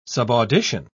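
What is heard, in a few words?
"subaudition", British English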